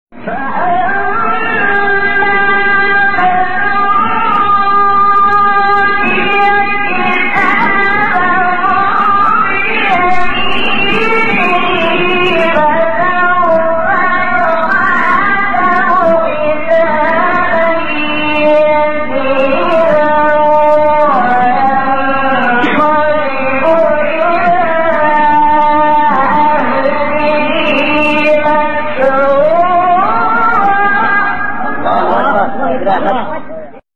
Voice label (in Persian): فراز قدیمی از تلاوت سوره انشقاق; آیه 7 به بعد; استاد شحات انور